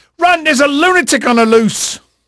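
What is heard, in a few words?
English male, voice; Pirates Of The Caribbean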